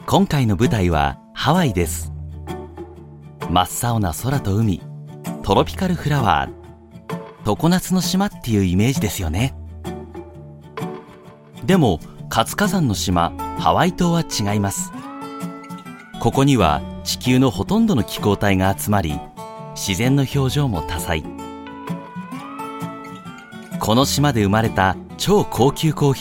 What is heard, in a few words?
japanese narration; japanese voice over talent